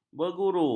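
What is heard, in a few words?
"berguru", Malay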